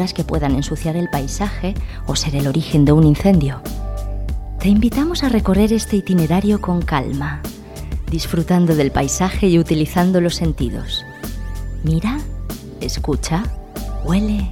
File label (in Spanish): Audioguia Paraje Natural Castellano, locutora